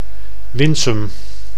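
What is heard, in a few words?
"Winsum", Dutch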